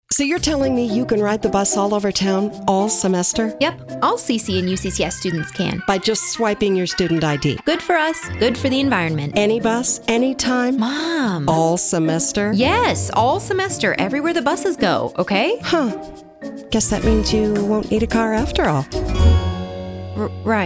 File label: Radio Ad; MtnMetroTransit; College Pass